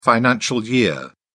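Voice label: "financial year", British English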